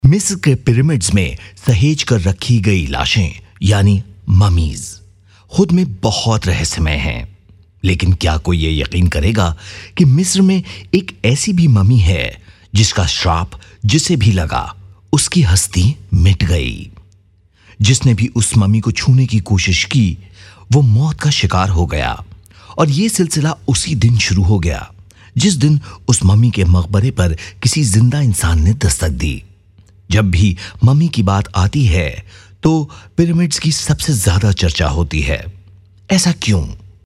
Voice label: hindi narration